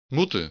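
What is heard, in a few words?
"moeten", Dutch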